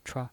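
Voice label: "chuai", Mandarin Chinese pinyin voice, neutral tone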